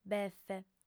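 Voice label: "befe", female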